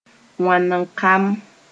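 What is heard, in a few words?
"wãnakam", Mooré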